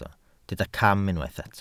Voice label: "cam", Welsh

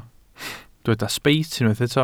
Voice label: "sbeit", Welsh

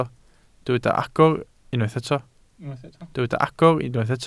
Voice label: "agor", Welsh

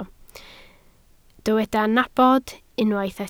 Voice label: "nabod", Welsh

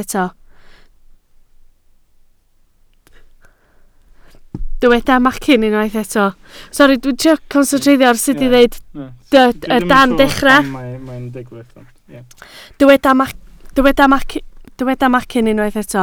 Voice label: "macyn", Welsh

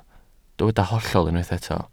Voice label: "hollol" Welsh

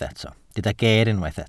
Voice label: "geer", Welsh

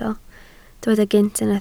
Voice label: "gynt", Welsh

